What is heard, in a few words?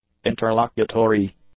"interlocutory", English; adjectives